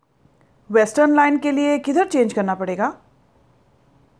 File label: Western Line Change, hindi